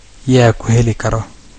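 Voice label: awesome, Finnish; gcompris-data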